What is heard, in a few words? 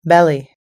"belly", us-english